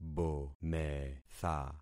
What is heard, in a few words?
"bometha", concat syllables; Affixing experiment